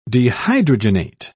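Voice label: "dehydrogenate", British English, dictionary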